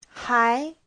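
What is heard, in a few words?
"hái", Mandarin Chinese; Giáo trình Hán ngữ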